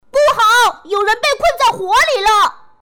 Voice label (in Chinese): 童声 小男孩